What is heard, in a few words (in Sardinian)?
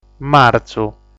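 "Martzu", Sardinian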